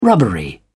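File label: "rubbery", British English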